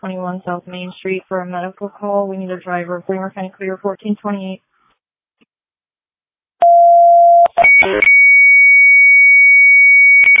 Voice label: Fire, dispatch